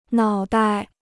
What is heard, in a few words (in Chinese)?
"脑袋", Chinese